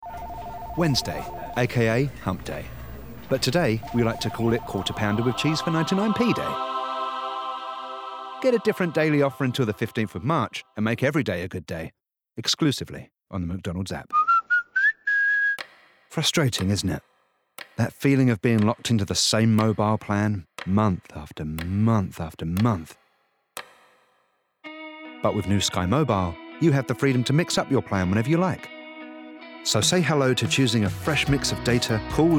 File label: Commercial, male voices